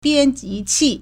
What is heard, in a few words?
"bian1ji2qi4", Chinese